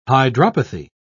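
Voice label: "hydropathy", British English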